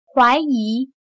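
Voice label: "怀疑", Chinese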